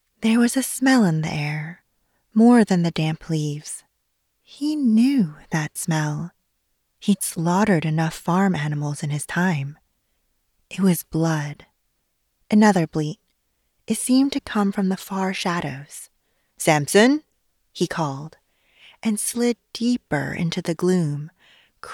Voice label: horror